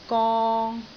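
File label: "gong3", Cantonese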